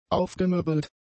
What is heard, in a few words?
"aufgemöbelt", German; adjectives